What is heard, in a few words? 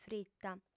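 "frita", female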